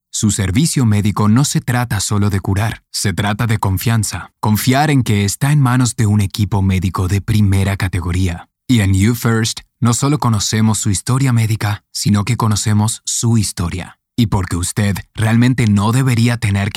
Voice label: Sincere